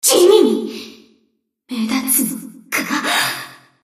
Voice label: Enemy Voice French Light Cruiser Princess Damaged Under Attack